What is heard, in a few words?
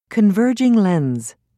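"converging lens", British English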